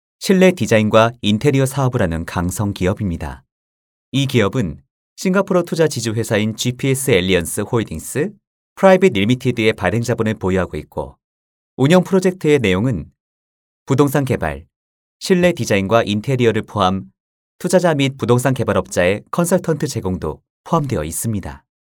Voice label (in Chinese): 韩语男 外语 小语种 专题 年轻